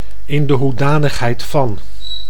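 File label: "in de hoedanigheid van", Dutch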